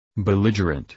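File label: "belligerent", English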